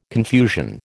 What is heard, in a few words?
"confusion", American